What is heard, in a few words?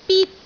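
"bit1", Cantonese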